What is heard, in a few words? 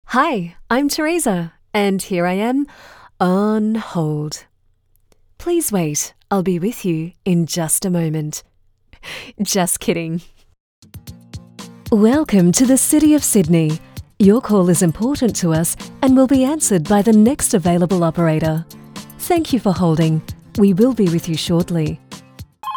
IVR Compilation